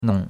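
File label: "nong3" Mandarin Chinese syllable, third tone; Cours de Chinois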